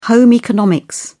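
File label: "home economics", British English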